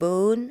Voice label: "boon" english

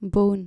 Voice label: "bone", dutch

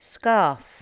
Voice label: "scarf", L2 English

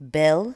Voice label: "bill", english

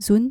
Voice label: "zoen", Dutch

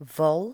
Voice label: "vol" english

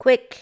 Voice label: "kwik", english

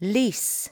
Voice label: "lease", english

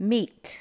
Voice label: "meat", english

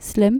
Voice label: "slim", Dutch

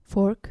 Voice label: "fork", dutch

